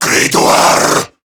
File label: Reload, Male